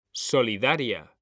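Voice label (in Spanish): "solidaria", Spanish; mekanta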